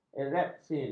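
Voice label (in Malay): "erepsin", Malay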